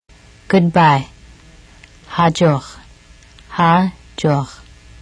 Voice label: Armenian Good Bye

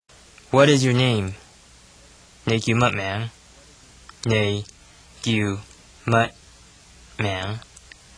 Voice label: Cantonese Name

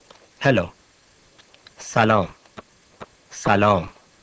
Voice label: Persian Hello

